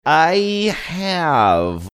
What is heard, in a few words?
drawn out i have